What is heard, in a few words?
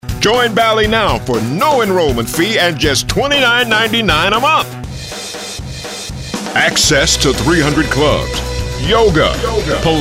hard sell